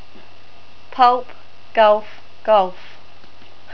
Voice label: "pulp", NZ English